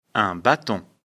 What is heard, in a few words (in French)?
"baton", lecture fr; afrikalan